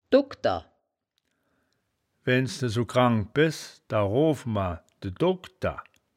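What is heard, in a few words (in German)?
"dogkder", wittlicher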